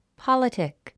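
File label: "politic", English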